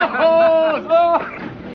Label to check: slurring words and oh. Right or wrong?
Right